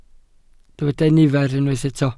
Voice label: "nifer", Welsh

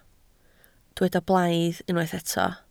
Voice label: "blaidd", Welsh

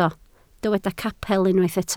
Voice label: "capel", Welsh

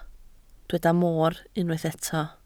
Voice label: "moor", Welsh

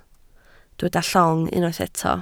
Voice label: "llong", Welsh

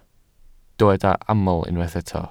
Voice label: "aml", Welsh

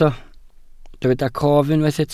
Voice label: "cof", Welsh

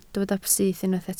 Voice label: "syth", Welsh

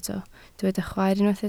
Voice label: "chwaer", Welsh

